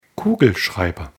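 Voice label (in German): "Kugelschreiber", German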